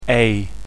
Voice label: "plane", phoneme